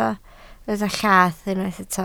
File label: "llath", Welsh